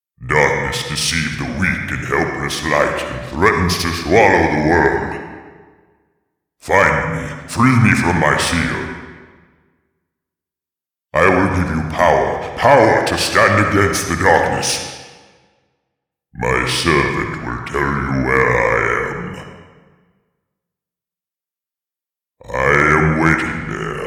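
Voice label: cinematic voice, Spanish; lineage2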